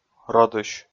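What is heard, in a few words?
"родысь", Komi, male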